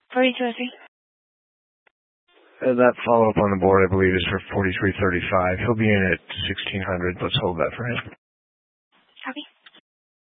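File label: Sheriff Dispatch, Scanner audio recording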